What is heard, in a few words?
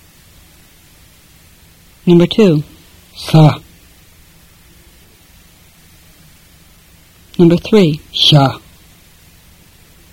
Fluent Tibetan drill